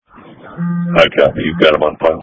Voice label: Police Dispatch